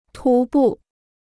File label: "徒步", Chinese